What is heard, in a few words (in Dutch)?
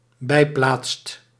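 "bijplaatst", Dutch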